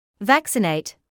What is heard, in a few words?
"vaccinate", medical English